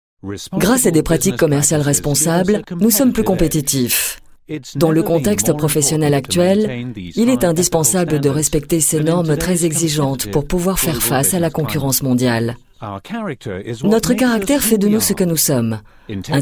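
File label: French, female, corporate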